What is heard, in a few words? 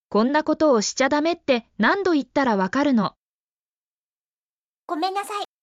Emotion Level: Strong frustration, repeated warning reaching a limit; 「こんなことをしちゃダメって何度言ったら分かるの！？（konnakotowoshichadamettenandoittarawakaruno！？）」「ごめんなさい（gomennasai）」